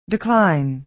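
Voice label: "decline", American English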